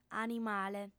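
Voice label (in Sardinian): "animale", female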